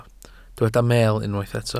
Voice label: "meel", Welsh

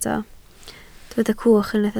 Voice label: "cwch", Welsh

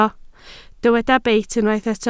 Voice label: "beit", Welsh

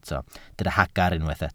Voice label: "hagr", Welsh

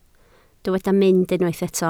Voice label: "mynd", Welsh

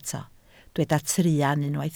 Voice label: "truan", Welsh

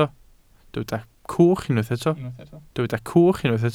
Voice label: "cwch", Welsh

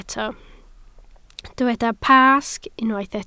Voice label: "Pasg", Welsh

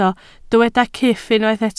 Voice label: "cyff", Welsh

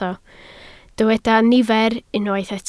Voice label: "nifer", Welsh

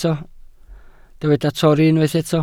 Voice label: "torri", Welsh

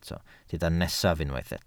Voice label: "nesaf", Welsh

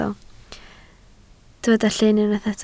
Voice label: "llyn", Welsh

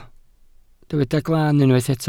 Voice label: "glaan", welsh

